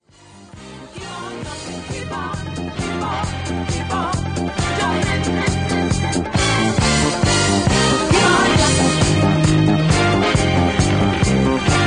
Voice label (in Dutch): Uitzending Zaalvoetbal Stream NL; 2e helft